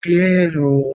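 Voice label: "gheh1ro", Proto-Indo-European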